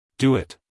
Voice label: "Do it" us male; everyone-can-use-english

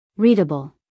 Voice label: "readable", US female; everyone-can-use-english

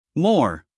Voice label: "moor", US male; everyone-can-use-english

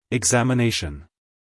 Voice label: "examination" US English, male; everyone-can-use-english

